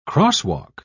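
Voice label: "crosswalk", English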